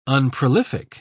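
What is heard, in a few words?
"unprolific", British English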